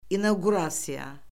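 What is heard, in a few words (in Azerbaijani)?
"inauqurasiya", Azerbaijani; Orfoqrafiya Lüğəti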